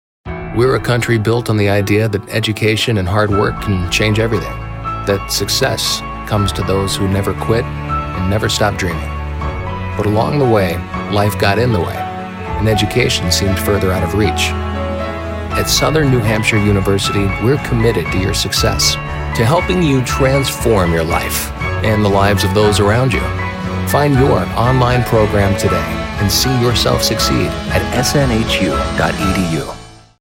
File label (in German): TV Werbespots; Jugendfrei; NATIVE PROFISPRECHER